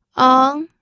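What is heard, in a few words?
"eng", Mandarin Chinese, second tone; pinyin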